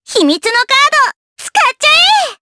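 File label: voice Skill, voices heroes, Japanese; kingsraid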